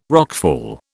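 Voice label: "rockfall", British English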